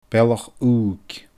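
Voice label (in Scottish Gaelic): "Bealach UUig", Scottish Gaelic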